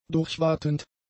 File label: "durchwatend", german; adjectives